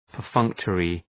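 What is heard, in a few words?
"perfunctory", English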